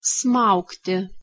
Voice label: "smaugti", Lithuanian

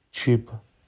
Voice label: "chib", Romani

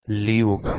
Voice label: "leogan", Anglo Saxon

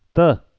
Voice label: "teh", Pashto

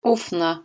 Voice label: "ufna", Proto Germanic

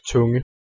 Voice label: "tunge", Anglo Saxon

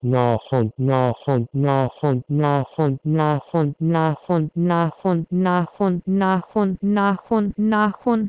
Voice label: Persian naxon to Urdu naxun

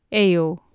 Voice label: "eow", Anglo Saxon

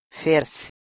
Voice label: "fers", Middle English

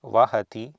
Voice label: "vahati", Sanskrit

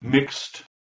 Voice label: "mikst", Proto-Germanic

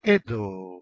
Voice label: "edo", Ancient Greek

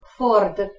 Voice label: "ford", Anglo Saxon

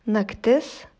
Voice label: "naktis", Lithuanian

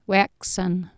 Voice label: "weaxan", Anglo Saxon

